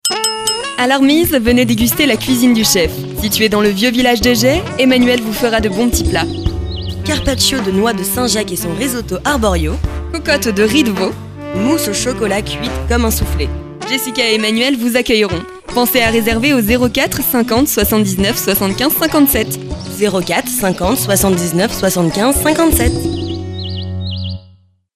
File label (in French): spot